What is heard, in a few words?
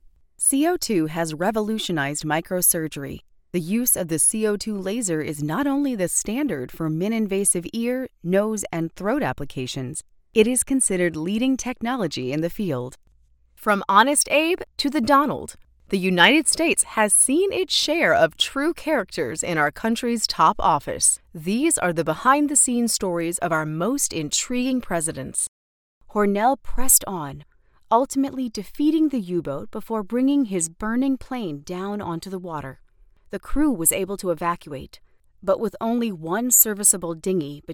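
Non Fiction Narration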